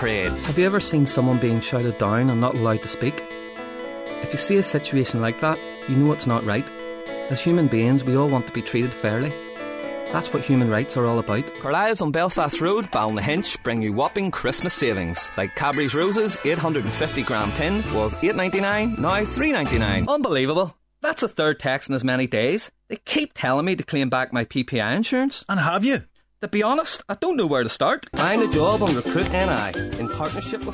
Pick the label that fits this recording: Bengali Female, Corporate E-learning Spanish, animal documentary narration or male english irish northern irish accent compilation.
male english irish northern irish accent compilation